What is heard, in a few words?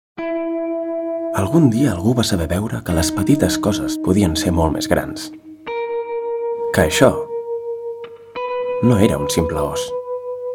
TV Spot Catalan; MORITZ